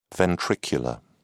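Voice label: "ventricular", British English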